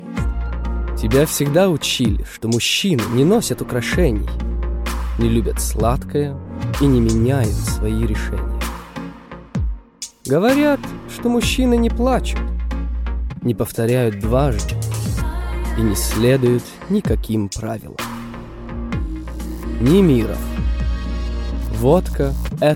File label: Russian